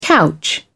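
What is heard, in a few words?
"couch", British English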